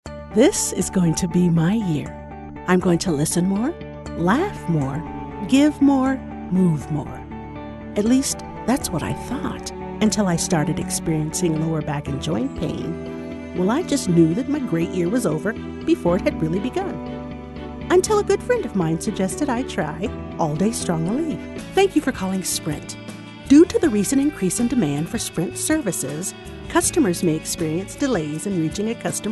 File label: Commercial, Female voices